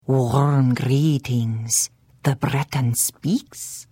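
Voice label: Hello, Khajiit female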